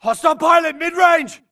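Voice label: grunt target Player Moving Mid; imc; Titanfall 2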